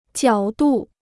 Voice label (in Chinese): "角度", Chinese